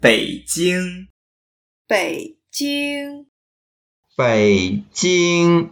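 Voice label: "bei3jing1", Mandarin Chinese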